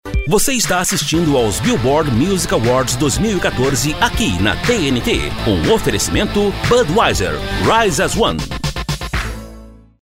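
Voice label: Brazilian Portuguese, male, commercial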